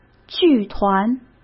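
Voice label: "剧团", Mandarin Chinese; chinese-flashcards; hsk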